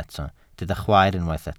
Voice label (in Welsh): "chwaer", Welsh